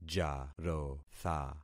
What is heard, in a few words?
"jarotha", concat syllables; Affixing experiment